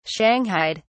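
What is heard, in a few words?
"shanghaied", British English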